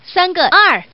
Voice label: Woman, tuple